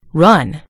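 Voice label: "run", American English; gcompris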